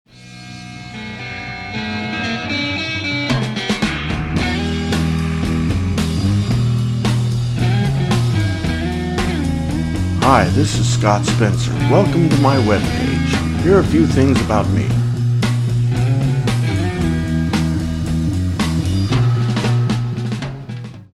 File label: Web Greeting